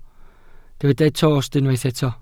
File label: "tost", Welsh